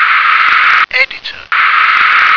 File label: "editor", English